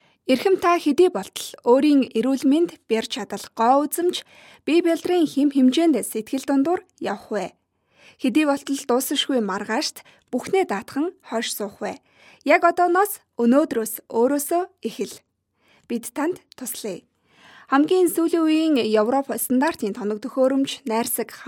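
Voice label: Mongolian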